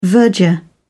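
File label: "verger", British English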